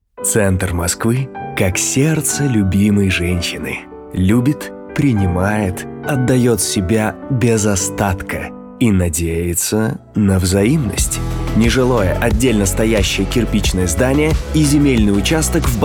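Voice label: russian male commercial; voice demos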